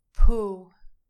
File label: "pool", English